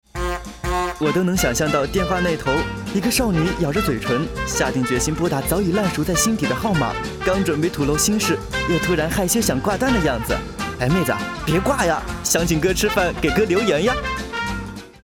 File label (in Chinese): 彩铃 特色版 电话那头的少女